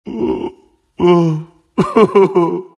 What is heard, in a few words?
anger; dota2; skywrath mage